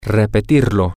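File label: to Repeat It masculine, Spanish, male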